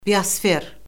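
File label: "biosfer", Azerbaijani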